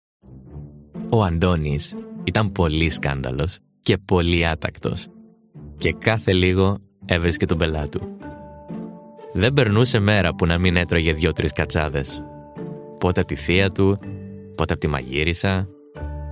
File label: Greek